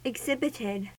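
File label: "exhibited", American English; pronunciations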